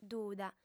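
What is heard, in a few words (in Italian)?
"duda", lingua sarda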